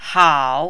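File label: "hao", third tone, Mandarin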